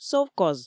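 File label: "совкоз", Komi, female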